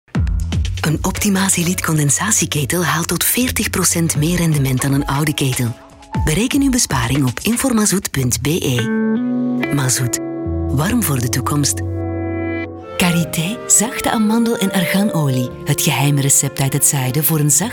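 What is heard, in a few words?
Flemish, female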